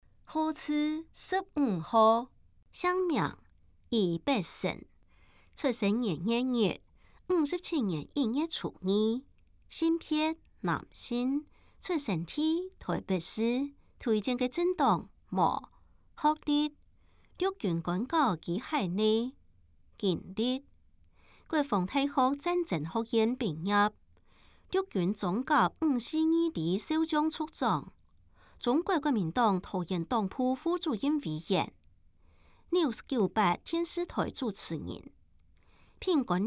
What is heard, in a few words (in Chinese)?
客語; 有聲公報; 市議員